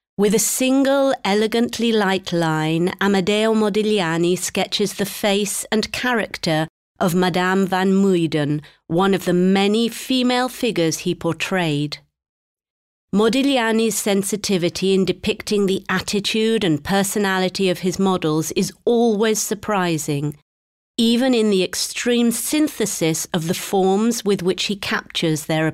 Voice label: audioguide ENG